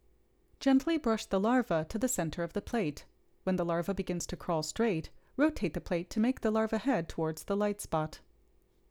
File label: Gong Voiceover